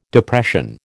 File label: "Depression", American